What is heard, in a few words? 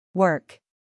"work", English